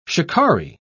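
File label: "shikaree", British English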